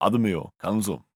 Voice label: neutral short

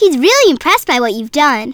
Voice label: Voices Speech Girl; Maze-Girl

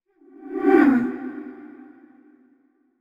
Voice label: thinking short; yamiko

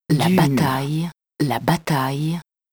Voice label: Title Speech (French); Dune 2; Amiga

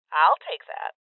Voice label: Voices Loot Collect Female

